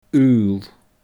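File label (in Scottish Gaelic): "aol", Scottish Gaelic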